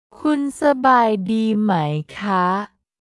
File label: khun sa baai dii mai kha, tajski